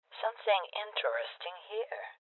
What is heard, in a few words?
Voices Loot Spotted Female Old; rebel cops 2019 gamerip